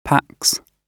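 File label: "packs", British English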